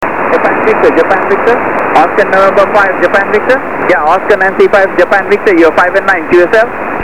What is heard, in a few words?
21 ssb